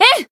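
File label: Voice limit break, Japanese; anaberu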